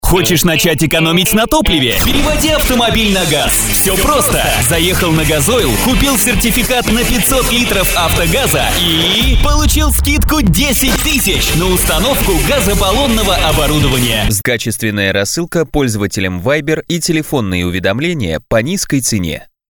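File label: Russian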